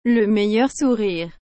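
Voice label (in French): "le meilleur sourire", French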